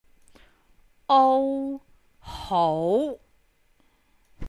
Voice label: ou hou2, cantonese